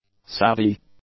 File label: "savvy", English; adjectives